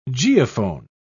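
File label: "geophone", British English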